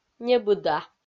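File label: "небыда", Komi, female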